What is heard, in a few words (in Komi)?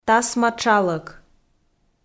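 "тасма тшалӧк", Komi, female; kpv; audio dict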